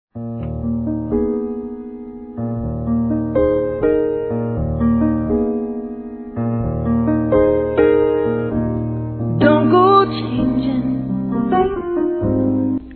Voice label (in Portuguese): Amor a Primeira Vista Masculino; Telemensagens